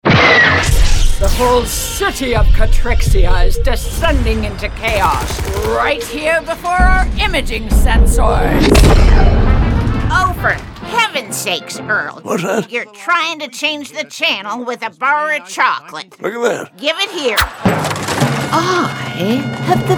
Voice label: Animation